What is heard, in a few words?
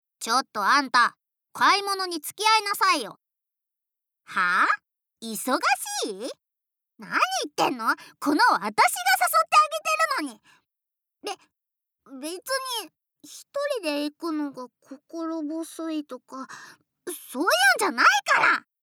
Young girl